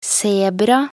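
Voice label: "sebra", Norwegian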